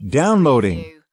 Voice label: "downloading", US male; lynne publishing